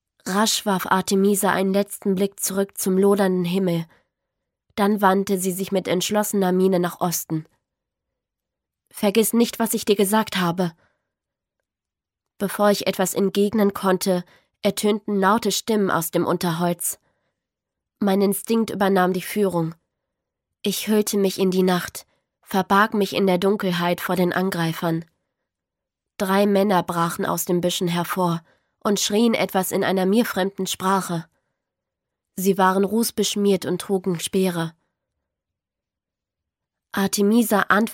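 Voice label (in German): Hörbuch; kissed darkness; shea macleod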